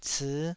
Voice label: "cii5", taiwanese